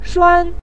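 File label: "shuan", Mandarin Chinese, neutral tone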